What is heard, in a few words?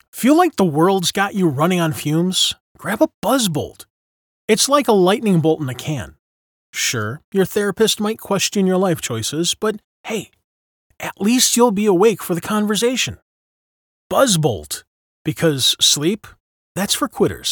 Witty